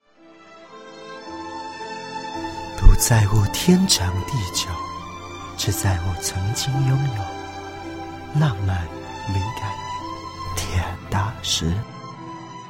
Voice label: Mandarin commercial